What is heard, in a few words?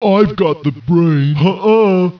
annoyed; ogre-mage